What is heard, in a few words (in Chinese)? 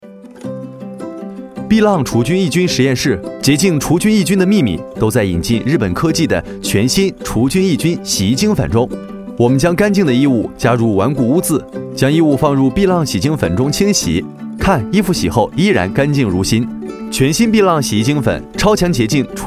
男国 动画 角色; 洗衣粉介绍